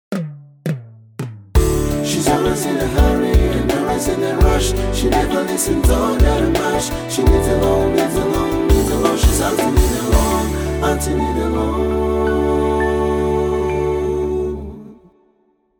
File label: Jingle English; FinLit